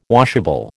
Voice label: "washable", American

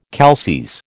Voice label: "calces", American

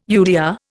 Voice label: "UDR", Indian

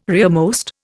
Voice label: "rearmost", Indian

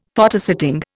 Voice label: "Photosetting", Indian; Dictionary

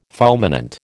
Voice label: "fulminant", American

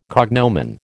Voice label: "cognomen", American

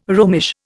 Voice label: "Romish", Indian

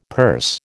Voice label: "purse", American

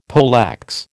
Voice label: "poleaxe", American

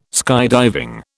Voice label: "skydiving", British

